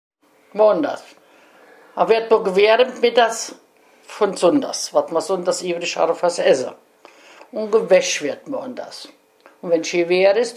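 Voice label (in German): wochenprogramm, Hunsrück; Belg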